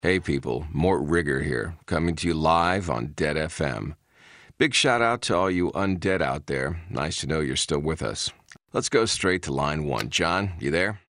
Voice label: deadpan

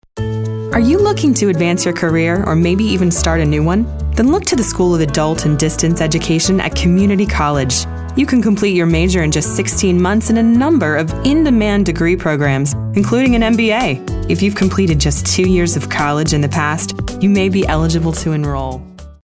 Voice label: Upbeat

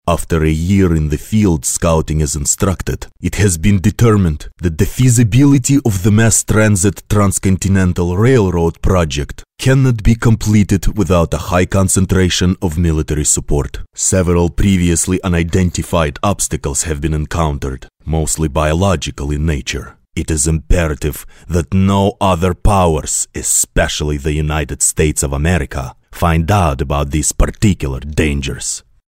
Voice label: Military Man Russian Accent

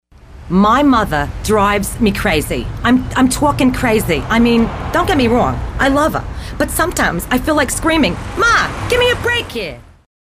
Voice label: character jewish

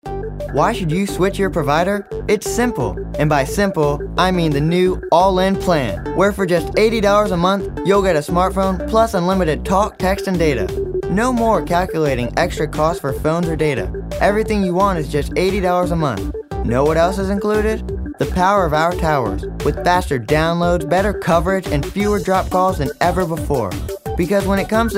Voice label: High Energy

